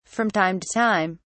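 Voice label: "from time to time", British English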